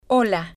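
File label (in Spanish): "hola", Spanish, female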